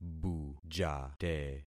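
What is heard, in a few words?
"bujate", concatenated syllables; Affixing experiment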